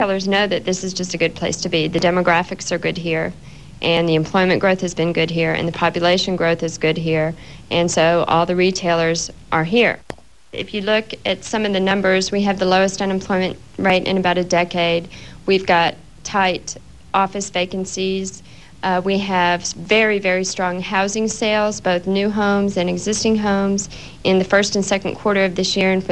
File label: Texas Dallas female, dialect